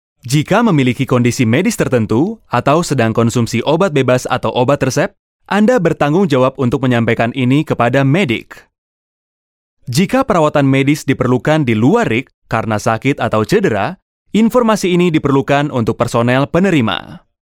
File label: Bahasa Indonesia Male